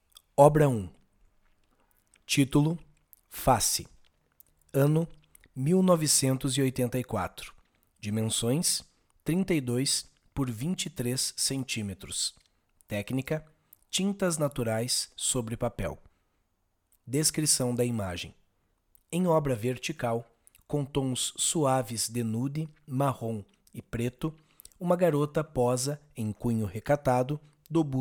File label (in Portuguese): audiodescricao; Galeria Virtual